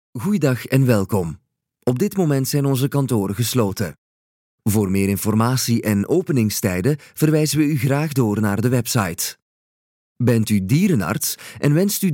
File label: flemish voiceover telephone